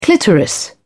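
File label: "clitoris", British English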